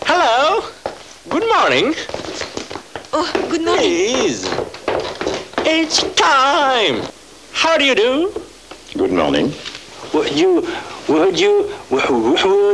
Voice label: british